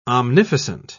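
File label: "omnificent", English, British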